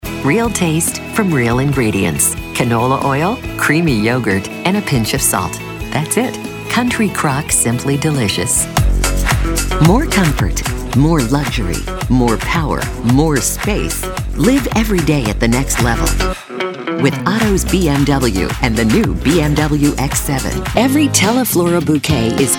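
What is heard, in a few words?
commercial; demo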